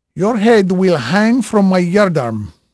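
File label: Portuguese male, voice; Pirates Of The Caribbean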